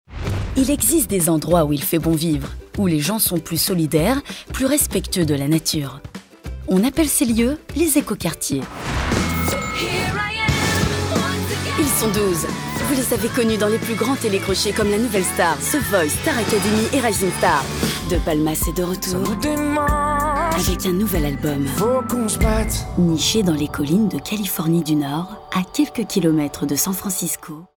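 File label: French female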